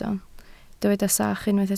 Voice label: "sach", Welsh

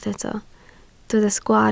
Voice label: "sgwaar", Welsh

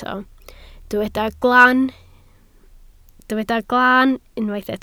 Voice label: "glan", Welsh

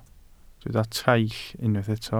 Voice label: "traill", Welsh

